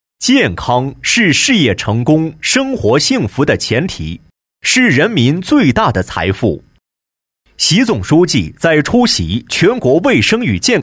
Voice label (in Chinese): 男, 宣传片, 医院; 凤阳人民医院宣传